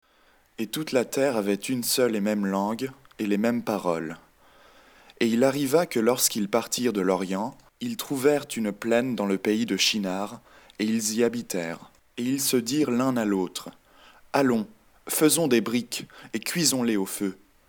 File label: French; ALors toute la terre vniuerſelle eſtoit dung meſme language & parolle.